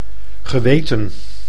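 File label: "geweten", Dutch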